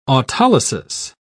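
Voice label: "autolysis", English, British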